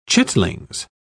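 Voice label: "chitlings", English, British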